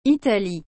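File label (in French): "Italie", French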